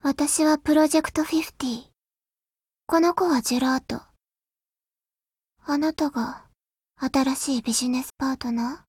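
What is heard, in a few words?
gain, Japanese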